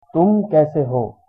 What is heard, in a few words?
how are you, hindi